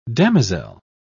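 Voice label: "damosel", British English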